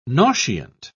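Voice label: "nauseant", British English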